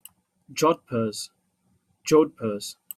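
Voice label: "jodhpurs", British English; pronunciations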